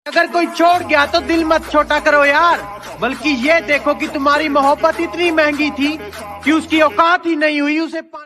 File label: Boy Attitude Ringtone